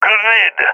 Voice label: throwing grenade, balkan; vo; balkan epic

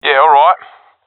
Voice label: affirmation, professional epic; vo; Source Model Packs; Counter Strike Model Pack; CS2

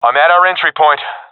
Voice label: at our spawn, professional epic; vo; Counter Strike Model Pack; CS:GO

